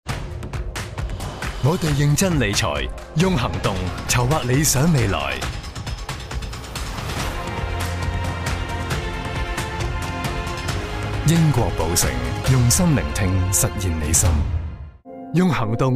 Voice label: 粵語男聲, Insurance

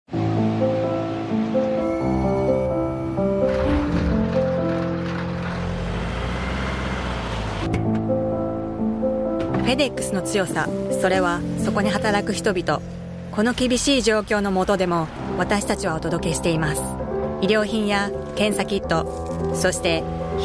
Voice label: 日語女聲; FEDEX

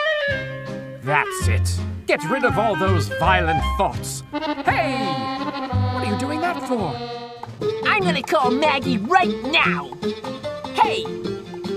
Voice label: 英語動漫男聲配音

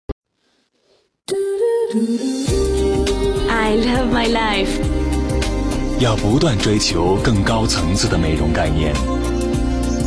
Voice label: 香港國語男聲

